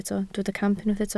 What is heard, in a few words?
"camp", Welsh